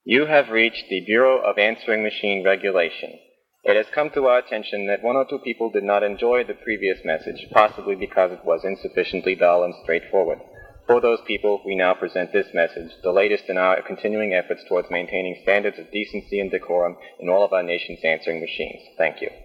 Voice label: answering machine bureaucratic; home answering machine; Reactionary Response Message; Athens